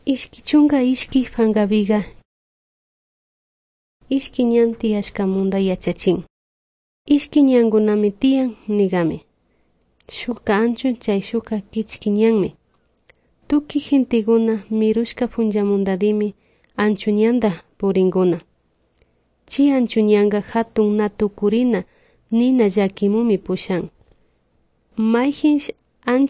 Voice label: good news quichua de salasaca

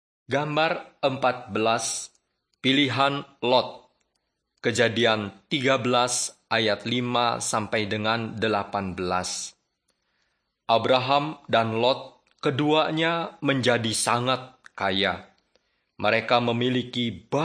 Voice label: bahasa indonesia; beginning with god

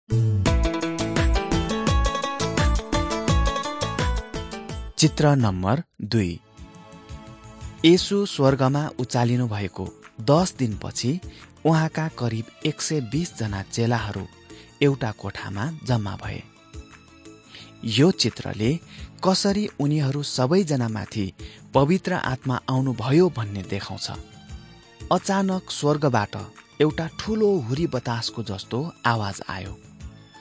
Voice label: acts of the holy spirit nepali

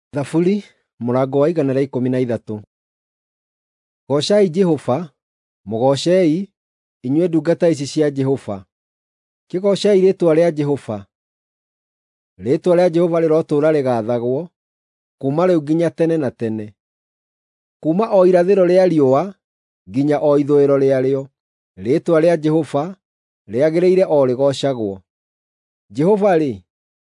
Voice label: psalms, gikuyu drama

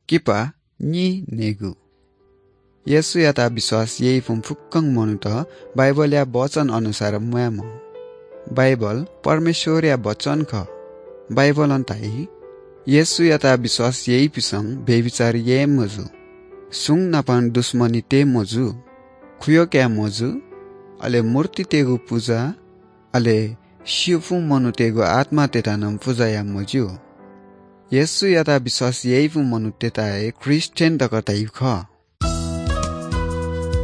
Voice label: good news newari bhaktapur

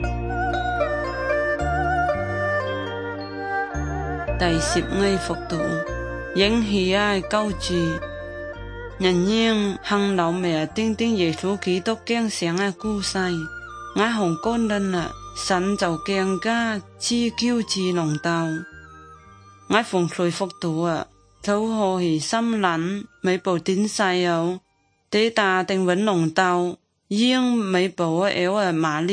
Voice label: good news zhuang guigang guiping

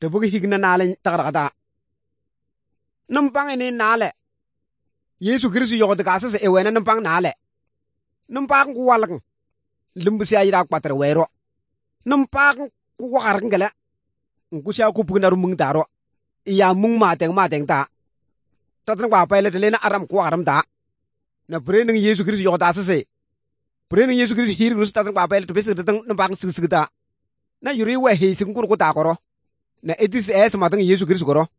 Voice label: good news, kabiye bible

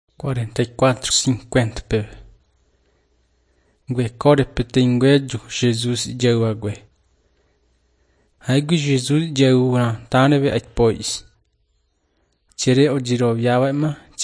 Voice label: john guarani mbya, bible

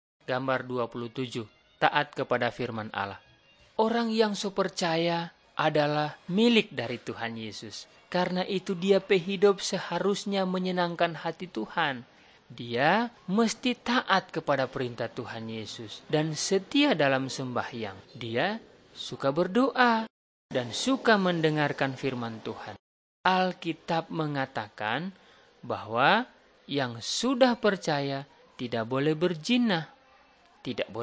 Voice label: malay manado; good news

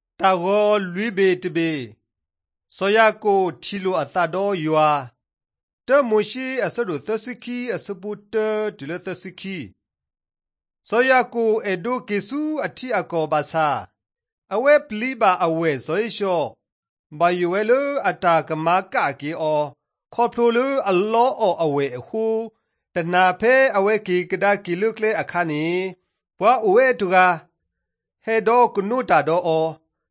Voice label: drama, karen sgaw; mighty men of god; bible

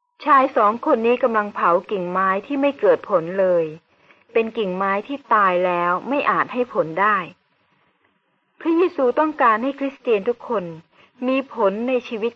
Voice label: good news short thai central

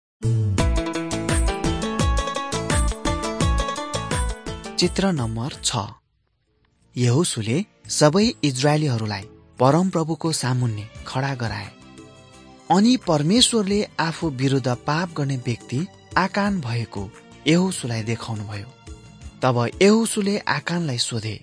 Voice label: victory through god nepali

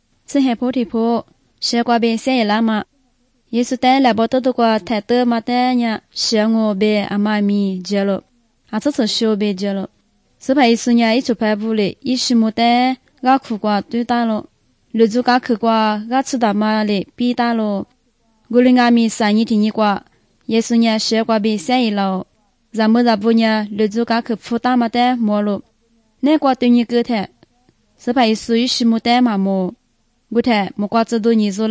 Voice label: good news lisu southern, drama

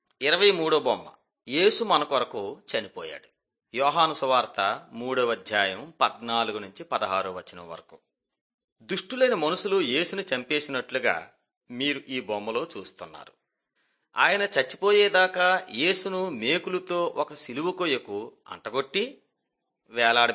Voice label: telugu bangladesh; mighty men of god

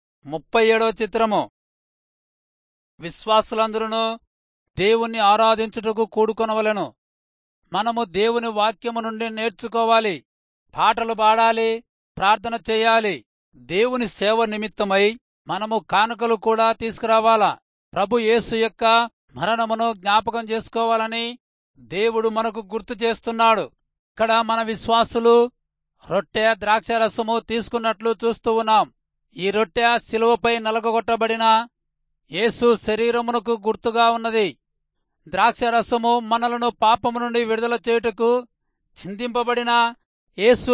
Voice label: telugu rayalseema; good news

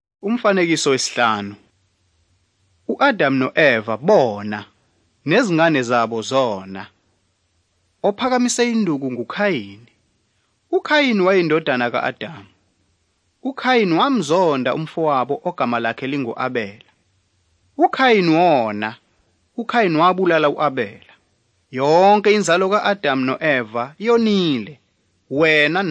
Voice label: zulu bible; good news

